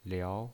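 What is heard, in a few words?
"liao", pinyin, Mandarin Chinese, second tone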